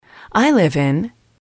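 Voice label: i live in, English, female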